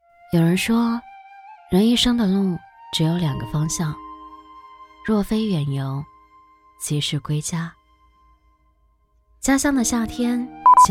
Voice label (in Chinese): 女 素人; 人一生的路只有两个方向